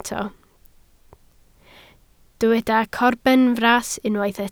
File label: "corbenfras", Welsh